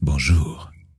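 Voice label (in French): "bonjour", French, dwarf, female; PhoenixCraft-1.16.5; PhoenixNPCPack; minecraft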